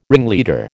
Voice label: "ringleader", American